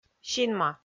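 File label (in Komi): "синма", Komi, female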